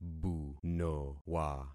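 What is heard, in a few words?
"bunowa", concatenated syllables; Affixing experiment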